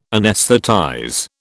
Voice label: "anaesthetize", British